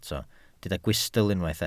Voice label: "gwystl", Welsh